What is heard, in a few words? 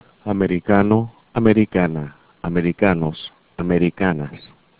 "americano", Spanish; adj